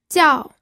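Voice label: "jiao4", Mandarin Chinese fourth tone; pinyin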